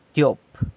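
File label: "tyop", San Lucas Quiaviní Zapotec; two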